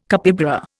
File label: "capybara", Indian